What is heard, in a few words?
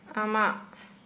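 Santali, female, your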